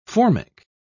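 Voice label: "formic", English, British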